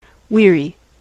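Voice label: "weary", US English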